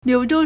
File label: "liu3zhou1", Chinese